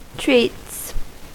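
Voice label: "treats", US English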